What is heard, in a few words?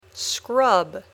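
"scrub", English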